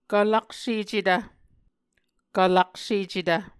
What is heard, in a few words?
"kvlaksecetv", Muscogee